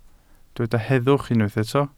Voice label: "heddwch", Welsh

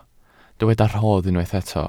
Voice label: "rhodd", welsh

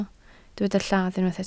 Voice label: "lladd", Welsh

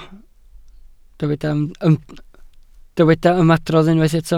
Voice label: "ymadrodd", Welsh

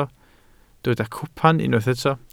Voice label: "cwpan", Welsh

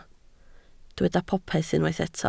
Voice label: "popeth", Welsh

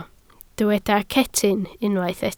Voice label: "cetyn", Welsh

